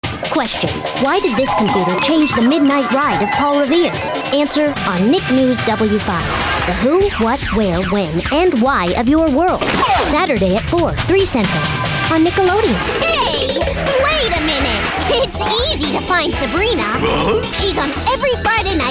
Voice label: Promos